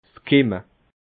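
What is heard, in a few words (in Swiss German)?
"kimme", Alsatian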